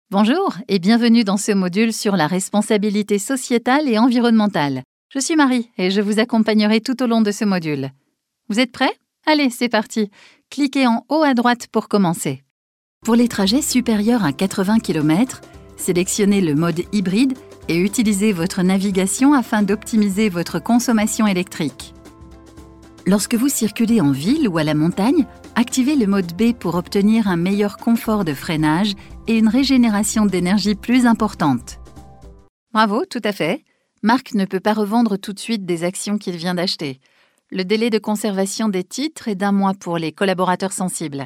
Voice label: elearning narration; showreel; voix off institutionnel